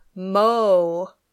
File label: "mow", English